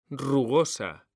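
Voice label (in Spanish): "RUGOSA", Spanish; mekanta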